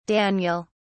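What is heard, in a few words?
"daniel", British English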